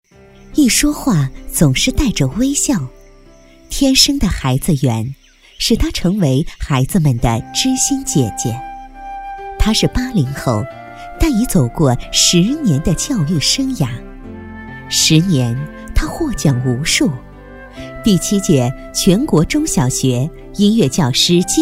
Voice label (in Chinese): 女-专题; 云窗酣梦 茁实化雨